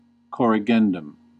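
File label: "corrigendum", American English; pronunciations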